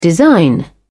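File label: "design", British English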